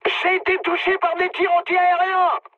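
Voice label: damaged aa, mood high, French; War Thunder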